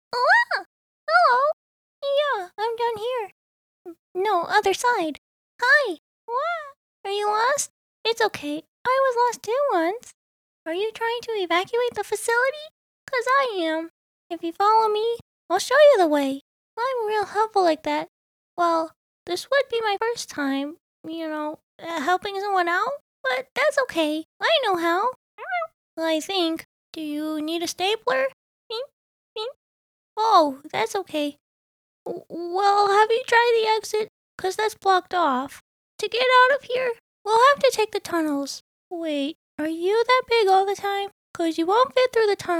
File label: tiny guy, voice acting